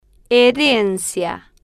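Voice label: "herencia", Spanish, slow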